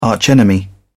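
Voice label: "arch enemy", British English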